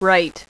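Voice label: "right", female; voice; Web Clip Empire 150,000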